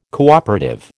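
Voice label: "cooperative", American English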